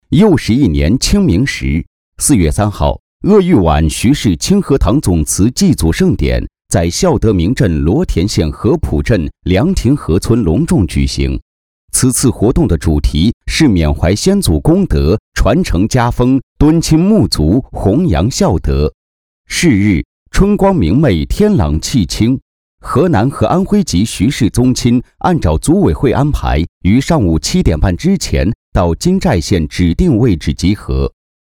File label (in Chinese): 男 新闻 新闻播报; 金寨县; 金寨县新闻播报